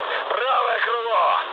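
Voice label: right wing, mood med, Russian; War Thunder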